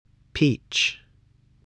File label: "peach", English, voice